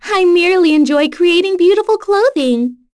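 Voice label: vox dia, voices heroes English; Yuria; kings raid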